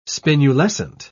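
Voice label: "spinulescent", British English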